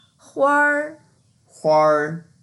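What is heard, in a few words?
"huar1", Mandarin Chinese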